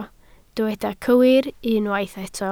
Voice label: "cywir", Welsh